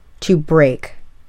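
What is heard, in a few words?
"to break", English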